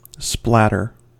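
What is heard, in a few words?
"splatter", US English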